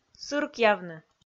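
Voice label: "сыркъявны", Komi, female; audio dict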